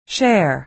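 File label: "share", American English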